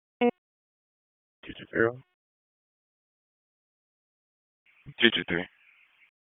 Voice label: Police Northeast District Disp, p25; Raleigh Police Northeast District Disp; wake p25